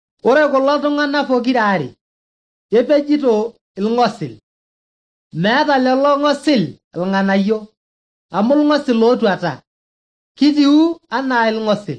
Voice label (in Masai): maasai bsv nt drama; good news; bible